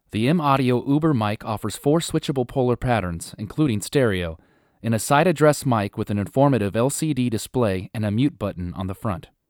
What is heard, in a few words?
Voc M-Audio Fig8, usb mic